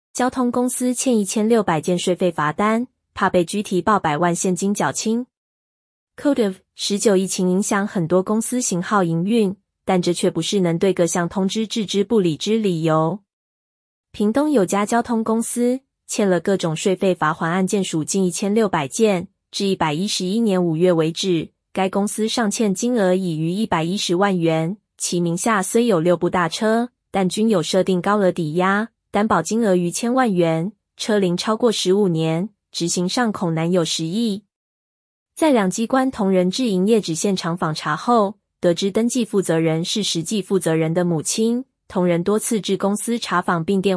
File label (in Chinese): ai語音-女; 交通公司欠1600件稅費罰單-怕被拘提抱百萬現金繳清